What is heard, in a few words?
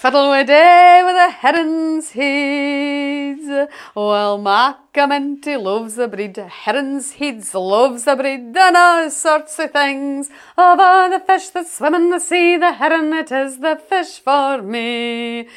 Aberdeen, scotspeak